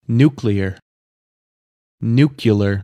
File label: Nuclear Disputed Pronunciation